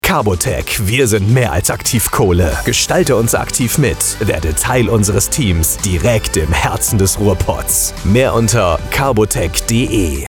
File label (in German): Funkspot; CarboTech-Gruppe